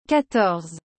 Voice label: "quatorze", French

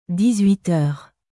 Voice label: "dix huit heures", French

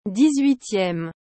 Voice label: "dix huitieme", French

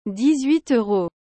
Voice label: "dix huit euros", French

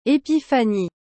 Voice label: "Epiphanie", French

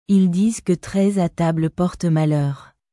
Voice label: Ils disent que treize à table porte malheur, French

